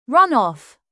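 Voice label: "run off" British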